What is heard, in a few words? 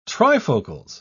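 "trifocals", British English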